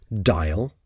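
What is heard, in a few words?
"dial", English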